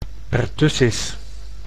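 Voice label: "pertussis", Dutch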